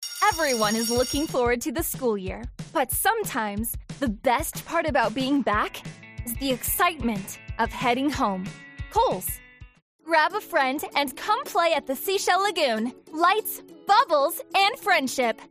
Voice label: Commercial